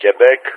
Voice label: "Quebec", player speech; Ka-50; Alphabet; Quebec-begin; DCS